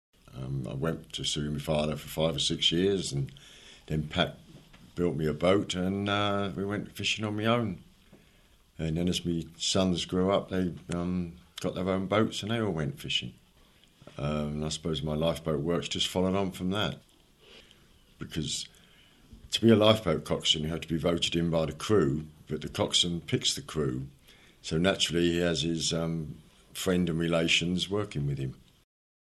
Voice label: dungeness bbc voices m f extract, kent examples

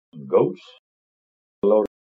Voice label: kent goat, accents; denton

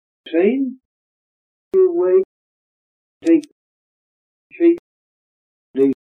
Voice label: kent face, accents; appledore

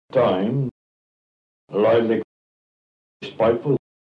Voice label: kent price, kent accent

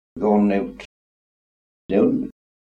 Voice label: kent goudhurst mouth, accents

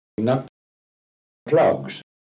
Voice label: kent lot, accents

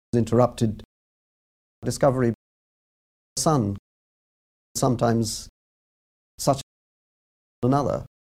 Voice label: rp strut, accents

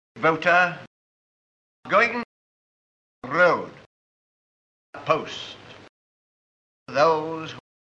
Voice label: rp goat; stanley baldwin; accents

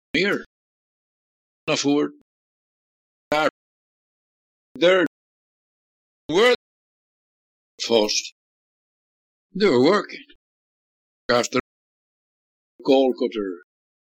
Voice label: Chorley district, rhotic; birth 1884; here afford our they're where first they'were'work; rhoticity; accents

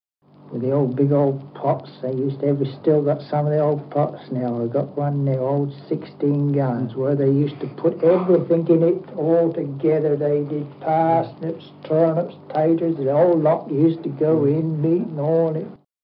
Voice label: kent, accents; warren st

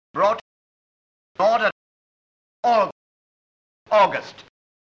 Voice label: rp thought; stanley baldwin

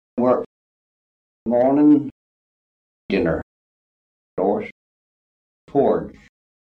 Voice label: kent goudhurst, accents

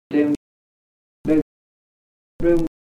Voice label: kent appledore mouth, accents

